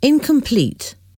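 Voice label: "incomplete", English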